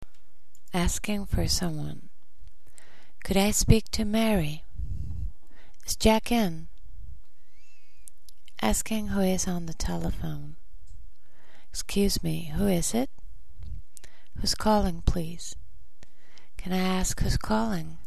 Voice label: phone